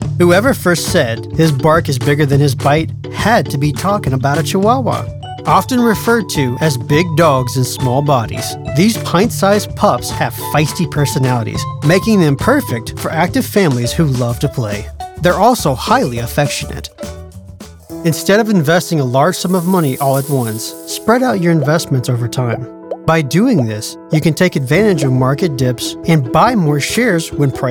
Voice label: Narration